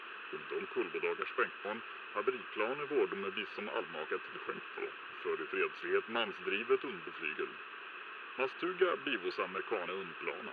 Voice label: TV reporter

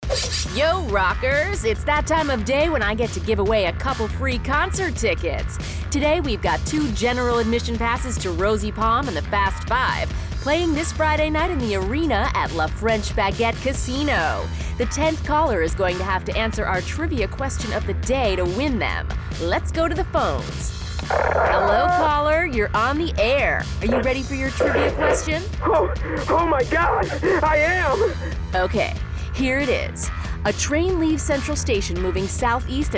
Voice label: Commentary; The Knife 87.8

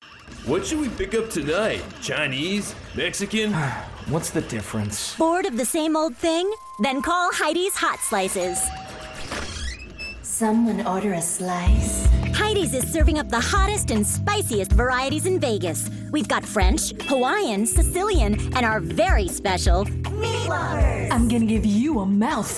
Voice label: Commercial; Heidi's Hot Slices

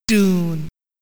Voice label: Title Speech (English); Dune 2; Amiga

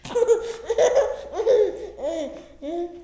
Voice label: Diner Voice; The Princess and the Frog; wii windows; Hub Diner

